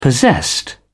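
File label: "possessed", British English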